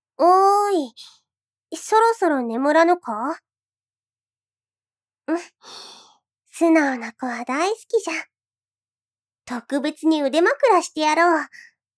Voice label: 愛おしそうに, おやすみボイス

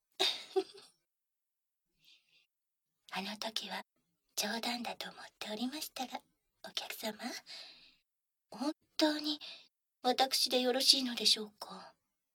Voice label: 耳オイルマッサージ（バイノーラルマイク収録 効果音あり大きめ）

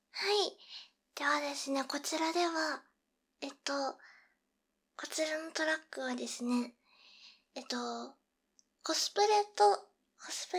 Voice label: コスプレトークとお着替え バイノーラル

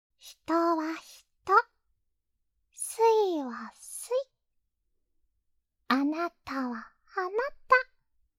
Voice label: 励ましボイス

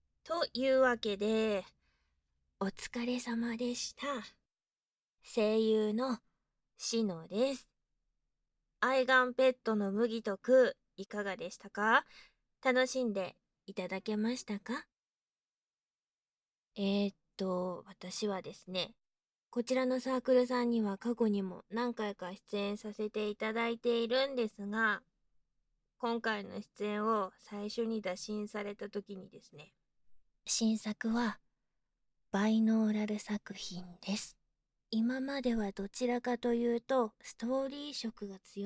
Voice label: 声優 さん の フリートーク

